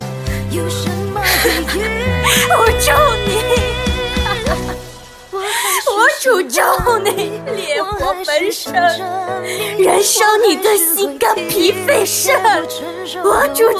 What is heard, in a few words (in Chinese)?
爆发, 女